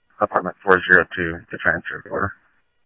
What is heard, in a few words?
Central Sheriff Central, scanner audio trunk